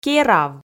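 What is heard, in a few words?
"керав", Komi, female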